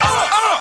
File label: "uh uh", Voice